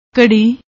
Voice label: "watch", Punjabi